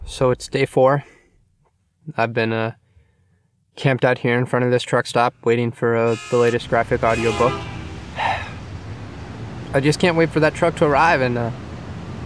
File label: Comercial